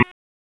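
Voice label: Police Northwest District Tactical 1, p25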